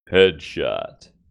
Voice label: headshot, announcer